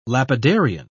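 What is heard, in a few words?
"lapidarian", British English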